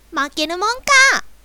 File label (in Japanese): "負けるもんかーっ", 強攻撃